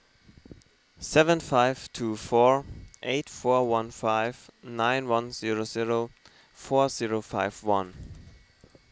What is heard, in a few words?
Non-Native DIGITS